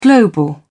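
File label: "global", British English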